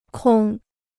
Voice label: "空", Chinese

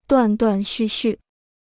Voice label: "断断续续", Chinese

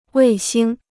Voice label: "卫星", Chinese

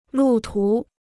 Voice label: "路途", Chinese

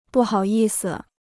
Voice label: "不好意思", Chinese

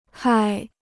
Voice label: "害", Chinese

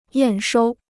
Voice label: "验收", Chinese

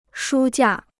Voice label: "书架", Chinese

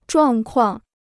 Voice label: "状况", Chinese

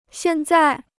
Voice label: "现在", Chinese

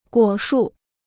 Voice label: "果树", Chinese

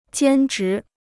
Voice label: "兼职", Chinese

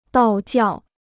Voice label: "道教", Chinese

